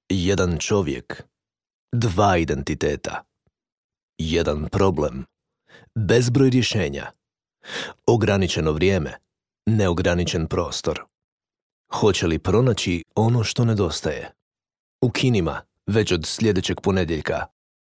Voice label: male croatian voice over sales